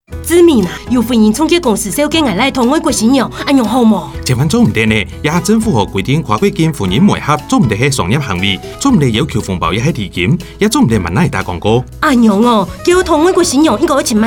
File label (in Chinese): 客語篇; 廣播廣告宣導; 106年跨國境婚姻媒合宣導-客語篇